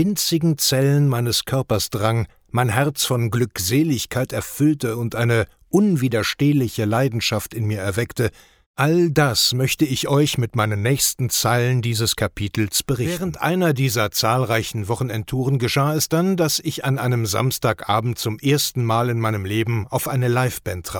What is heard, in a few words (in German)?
hoerbuch musik